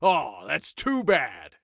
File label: Taunt Aww, Soldier Male; Soldier Male 02